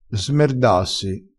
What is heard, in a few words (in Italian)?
"smerdassi", crespinese